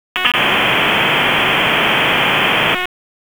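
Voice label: Fire Department HQ Dispatch; RFD; wake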